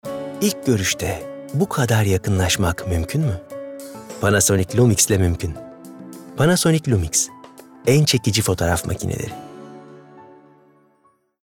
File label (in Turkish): Romantik